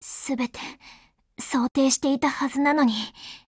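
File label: BREAK Japanese, Voice; GF Alarm; Fedorov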